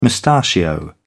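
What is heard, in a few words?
"mustachio", British English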